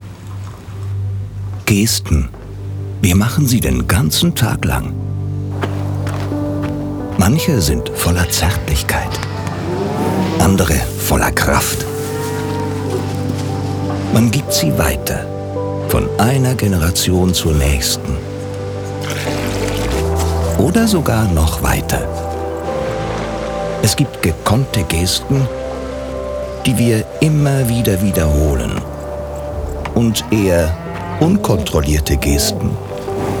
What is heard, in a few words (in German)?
TV-Spot nur-Stimme Off-stimme; Le-Gruyère-AOP